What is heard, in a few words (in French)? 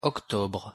pronunciation fr "octobre"